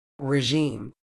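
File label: "regime", medical English, British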